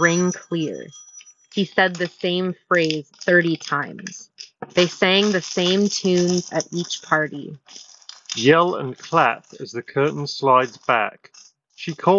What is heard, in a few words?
1More Sonoflow Office microphone sample